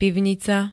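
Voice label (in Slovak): "pivnica", slovenské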